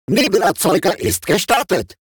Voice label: robot Consumable Smoke Start; World Of Warships